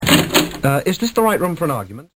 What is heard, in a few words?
argument